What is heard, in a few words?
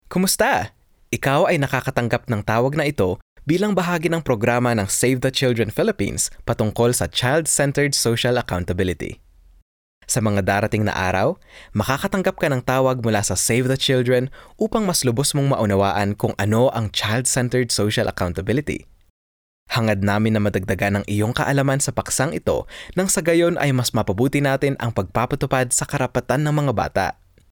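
Tagalog, male, narration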